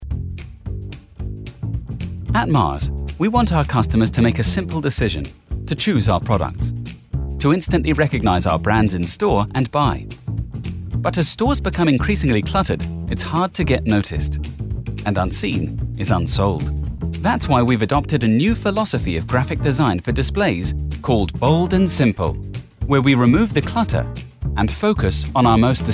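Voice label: British Friendly Web Business Reads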